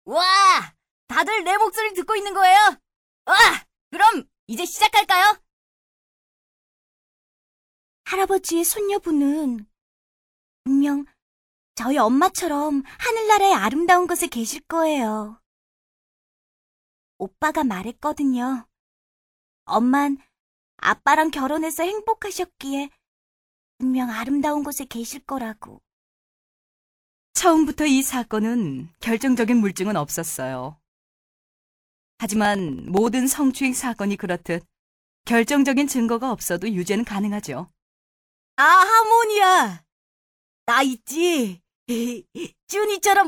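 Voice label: Korean female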